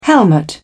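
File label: "helmet", British English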